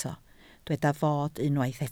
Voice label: "fod", Welsh